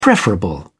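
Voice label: "preferable", English, British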